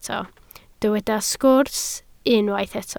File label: "sgwrs", Welsh